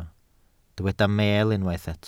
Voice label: "meel", Welsh

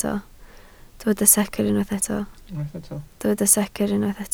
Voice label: "secr", Welsh

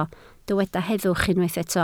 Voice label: "heddwch", Welsh

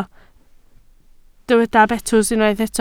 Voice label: "betws", Welsh

